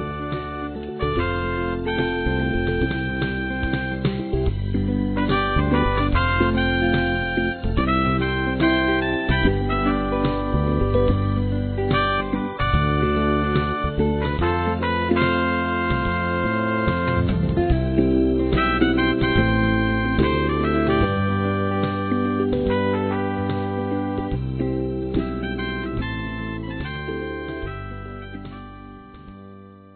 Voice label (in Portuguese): VOCÊ ME DEIXA LOUCO, Sensuais, masc; Telemensagens